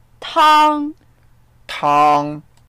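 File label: "tang1", Mandarin Chinese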